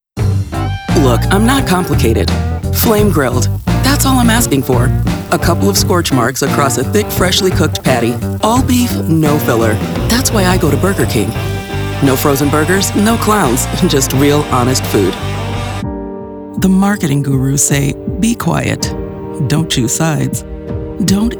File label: commercial